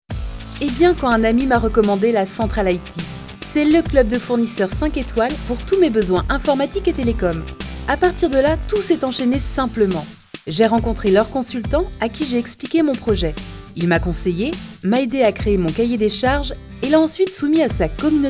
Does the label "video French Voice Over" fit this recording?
Yes